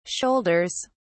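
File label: "shoulders", British English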